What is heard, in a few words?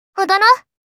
"Odoru", Kawaii Voice, Commands